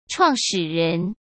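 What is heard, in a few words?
"创始人", Mandarin Chinese; chinese flashcards; hsk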